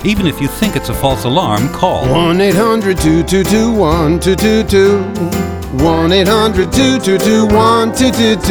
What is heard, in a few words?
Poison Control English